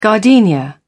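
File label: "gardenia", British English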